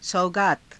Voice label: "saugaata", hindi